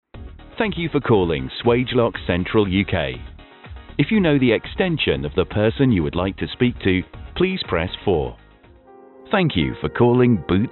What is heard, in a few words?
ivr telephone